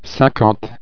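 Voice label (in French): "cinquante", French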